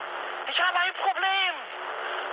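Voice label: German aircraft damaged mood high, speech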